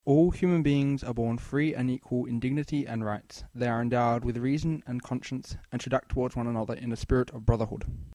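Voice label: Australian English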